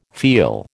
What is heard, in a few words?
"feel", American